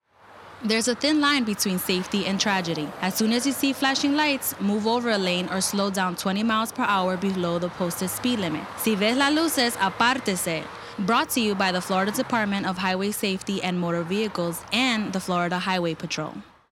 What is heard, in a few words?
Spanish; FLHSMV; MoveOver